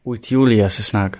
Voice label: do you speak english, gwentian

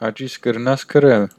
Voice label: write, armenian western

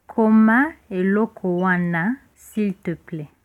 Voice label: write, lingala; Koma eloko wana s'il te plaît

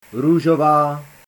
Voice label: pink, Czech

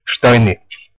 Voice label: no understand, West Flemish

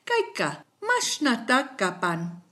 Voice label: how much, kichwa

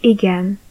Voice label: yes, Hungarian

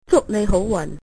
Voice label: good luck, cantonese

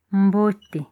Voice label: hello, lingala

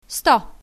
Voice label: hundred, bulgarian numbers

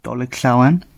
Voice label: christmas, gwentian; Dolig llawen; Welsh (Gwentian); Merry Christmas

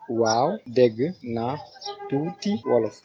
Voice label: yesalittle, wolof; Wau, degg naa tuuti Wolof

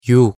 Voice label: "yuk", Korean

